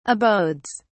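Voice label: "abodes", British English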